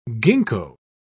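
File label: "ginkgo", English, British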